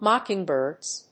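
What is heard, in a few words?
"mocking birds", English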